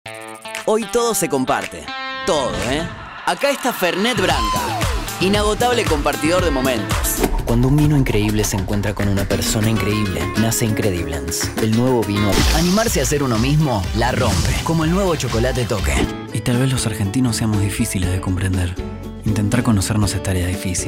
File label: voice over